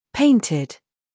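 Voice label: "humor", British; painted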